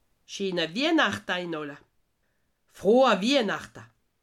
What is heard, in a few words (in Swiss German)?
"scheena wiahnachta", alsace